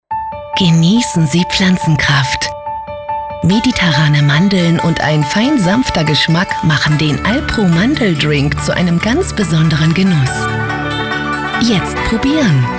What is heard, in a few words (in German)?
Funkspot